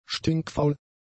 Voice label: "stinkfaul", German; adjectives